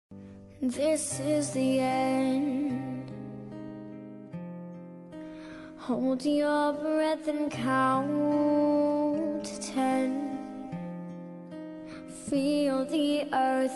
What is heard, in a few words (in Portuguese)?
Telemensagem Romântica, Esposa, Voz Masculina